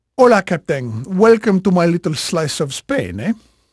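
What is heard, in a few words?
Spanish male, voice; Pirates Of The Caribbean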